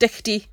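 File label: "dichtie", Cromarty Fishertoun dialect; [dICH-tee]; clean; Word; Verb; fishertoun dictionary; cromartymuseum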